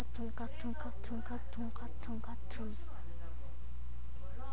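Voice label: "cartoon", spoken word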